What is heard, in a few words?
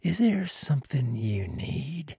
trader male dislike greeting